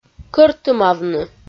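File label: "кӧртымавны", Komi, female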